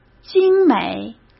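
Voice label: "精美", Mandarin Chinese; hsk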